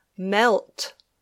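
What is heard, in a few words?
"melt", English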